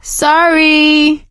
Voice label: sorry, survivor voice; Left 4 Dead 2; producer

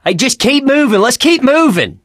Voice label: in water, voice, mechanic, survivor; Left 4 Dead 2

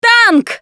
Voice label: warn tank, russian, Survivor Voice Producer

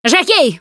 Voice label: warn jockey, russian, Survivor Voice Producer

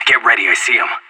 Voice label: radio enemy spotted; Counter-Strike Global Offensive

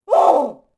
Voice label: Gasmask Die Vox; Beyond the Sword; Units

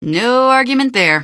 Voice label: answer, female; npc; Garry's Mod; hl2

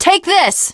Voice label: throw items, teen girl; Left 4 Dead 2

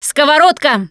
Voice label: take frying pan, russian, Survivor Voice Producer

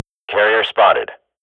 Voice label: radio bot bomb spot, swat; Global Offensive; player vo

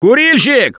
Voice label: Warn Smoker, russian; Gambler

